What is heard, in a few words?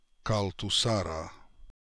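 "Kaltusara", pronunciations; Fourth Way; Beelzebub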